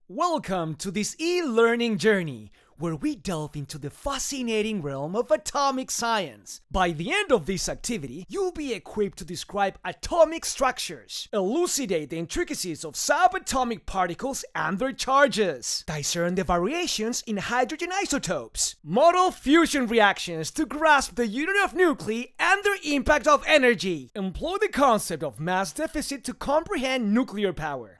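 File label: Latin American Spanish male English sample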